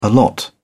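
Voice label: "allot", British English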